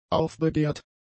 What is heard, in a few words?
"aufbegehrt", German; adjectives